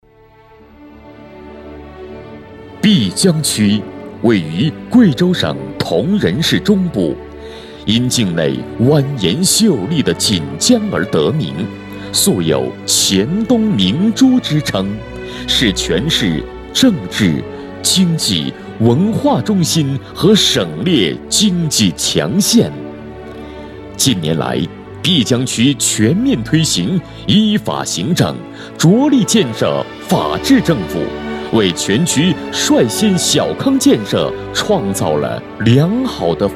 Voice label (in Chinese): 男 大气; 碧江区; 优质年轻